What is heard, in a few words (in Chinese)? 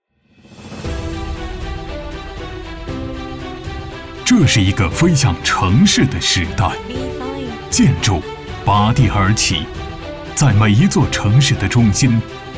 男 宣传片 大气有力; 立成公司